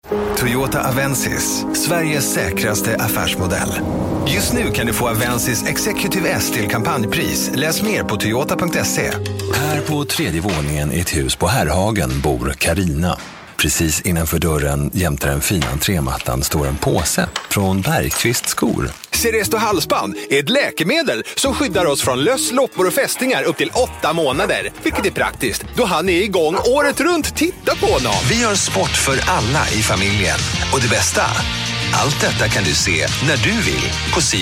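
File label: Swedish commercial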